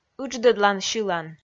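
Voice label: "ыдждӧдлан сьылан", Komi, female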